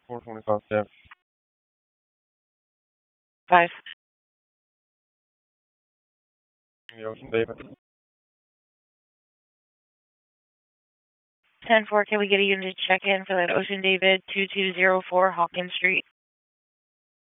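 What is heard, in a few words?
Raleigh Police Southeast District Dispatch; p25; wake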